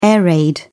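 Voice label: "air raid", British English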